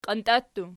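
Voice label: "qiantia" plural, Archi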